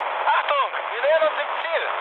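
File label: German near waypoint mood med, speech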